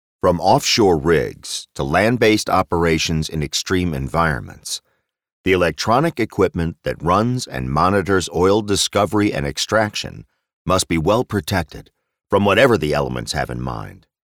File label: Corporate Narration